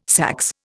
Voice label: "saxe", Indian